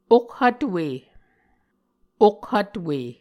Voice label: "okhvtwe", Muscogee